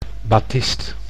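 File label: "batist", Dutch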